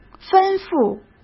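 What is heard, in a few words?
"吩咐", Mandarin Chinese; hsk; chinese-flashcards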